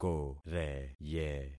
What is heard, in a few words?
"koreye", concat syllables; Affixing experiment